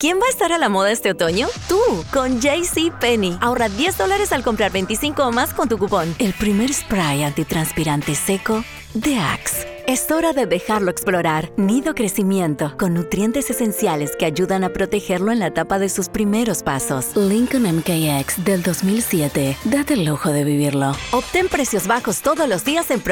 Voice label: Commercial, Commercials (Argentinian Accent)